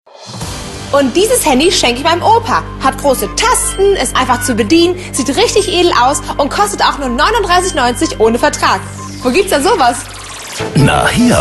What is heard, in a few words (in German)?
TV-Spot Senioren-Handy; Pearl